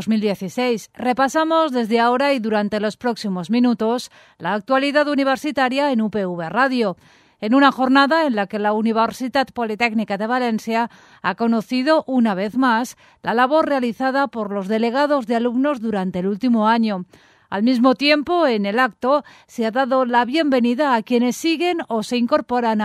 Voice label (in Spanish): INFORMATIVO; informativo UPV; Recepcion Delegados Alumnos UPV